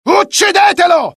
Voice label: alert, dialogue IT; mohaa; attack

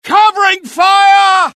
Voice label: suppress, dialogue UK; mohaa

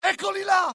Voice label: alert, dialogue IT; mohaa; attack

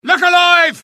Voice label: alert, dialogue UK; mohaa

